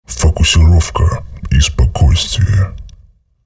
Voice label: chatter neutral; visor